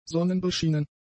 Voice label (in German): "sonnenbeschienen", german; adjectives